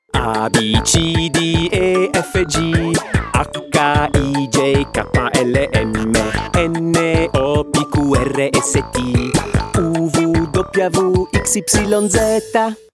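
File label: child toy advert Italian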